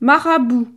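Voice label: "marabout", French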